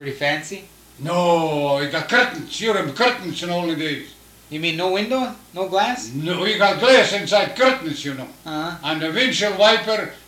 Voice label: Personal history with 88 year old male Eveleth Minnesota; american english dialect